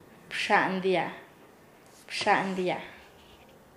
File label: "abrazar", Nasa Yuwe; paez